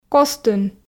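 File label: "костын", Komi, female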